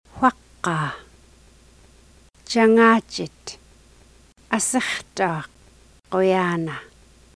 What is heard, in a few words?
Yupik